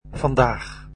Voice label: "today", Dutch